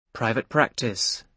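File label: "private practice", British English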